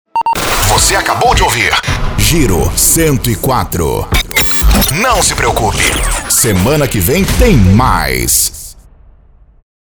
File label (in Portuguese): Vinheta Falada